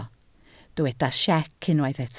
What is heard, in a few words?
"siec", Welsh